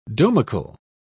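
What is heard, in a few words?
"domical", British English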